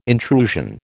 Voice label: "intrusion", American

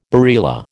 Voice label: "barilla", American

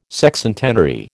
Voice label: "Sexcentenary", American

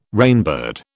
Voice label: "rainbird", British

